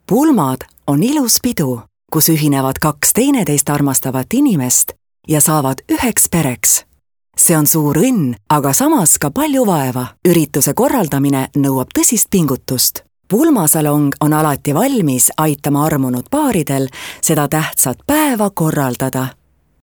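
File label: Estonian female Inspiration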